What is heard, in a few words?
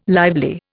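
"lively", Indian English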